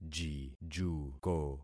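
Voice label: "jijuko", concatenated syllables; Affixing experiment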